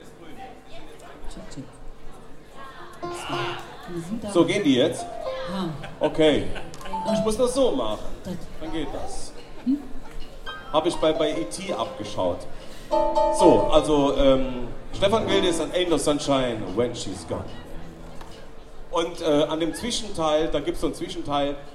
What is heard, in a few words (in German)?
Ansage, Konzert; Boemundhof, Saarburg